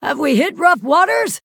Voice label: Female Night Elf Oops